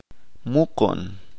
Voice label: "Enterramiento sepultura", Chalchiteko